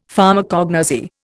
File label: "Pharmacognosy", Indian; Dictionary